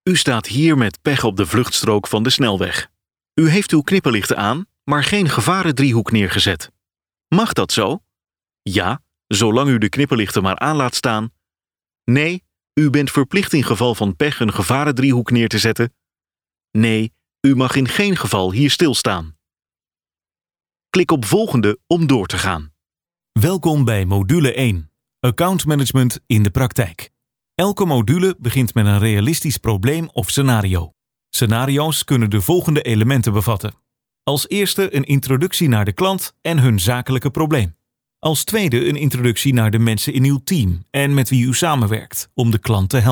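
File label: Dutch, male, e-learning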